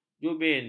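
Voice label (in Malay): "jubin", Malay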